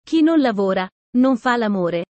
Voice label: italian sayings about life